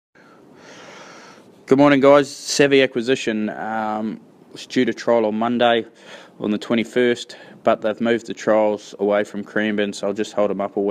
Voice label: voice note; Savvy Acquisition